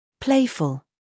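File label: "scandal", American; playful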